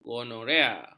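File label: "gonorea", Malay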